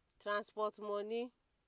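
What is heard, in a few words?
"transport money", Nigerian Pidgin, female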